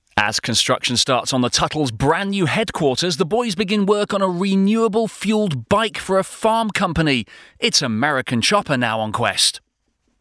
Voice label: continuity; Discovery Quest; Continuity – treasure Quest

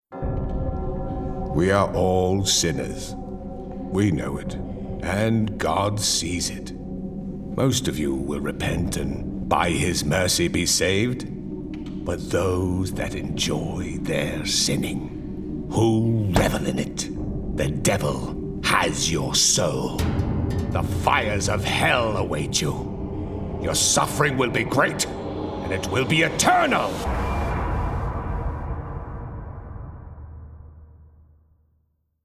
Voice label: Gaming Preacher; Gaming Showreel

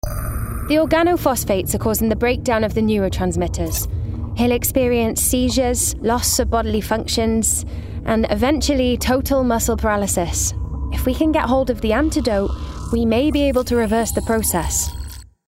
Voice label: Gaming Doctor Welsh

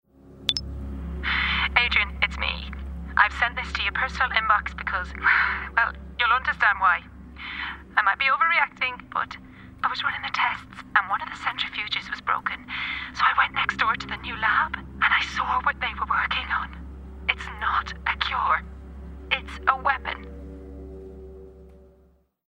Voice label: Gaming Scientist Irish

